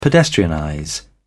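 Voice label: "pedestrianize", English, British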